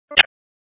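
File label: Raleigh Police Special Operations Tactical, p25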